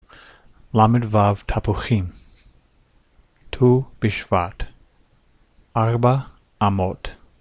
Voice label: speaking numbers, hebrew